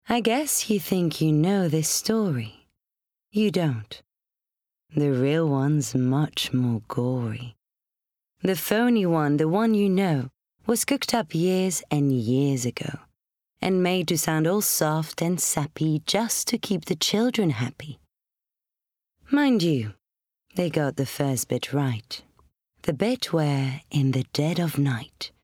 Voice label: Narration English; Cinderella

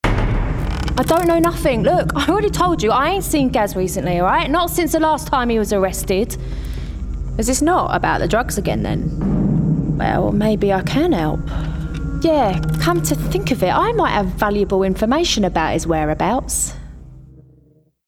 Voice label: Gaming Character NPC London

